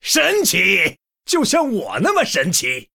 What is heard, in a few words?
Male Human WOW, zh